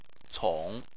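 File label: "cong" third tone, Chinese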